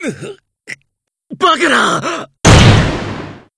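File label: dynamic, Japanese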